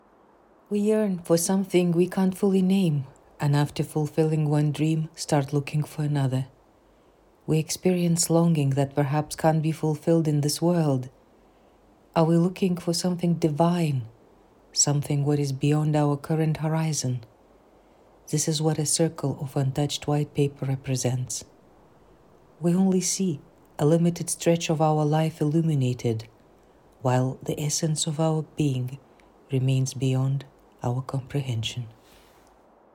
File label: voiceover